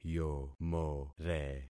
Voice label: "yomore", concat syllables; Affixing experiment